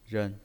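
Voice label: "ren", Mandarin Chinese pinyin voice, neutral tone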